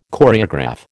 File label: "Choreograph", American